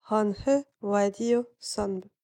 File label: female heal, voice napatan civ